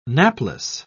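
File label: "napless", British English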